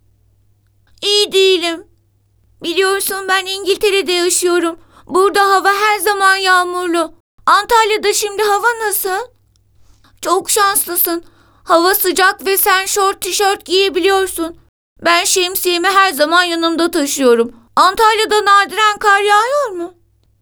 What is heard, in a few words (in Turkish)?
kız çocuk sesi